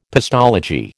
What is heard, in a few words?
"pestology", American